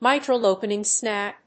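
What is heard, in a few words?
"mitral opening snap", English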